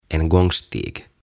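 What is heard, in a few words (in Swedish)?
"En gångstig", Swedish; Kulkutie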